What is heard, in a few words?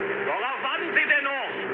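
German attack position mood low, speech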